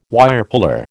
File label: "Wirepuller", American